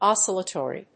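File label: "oscillatory", English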